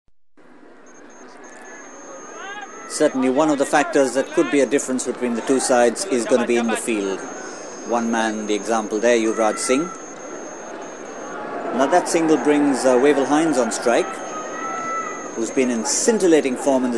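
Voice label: English Indian Male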